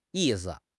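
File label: "yi4 si5", Chinese; HSK; HSK2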